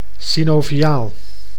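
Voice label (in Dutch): "synoviaal", Dutch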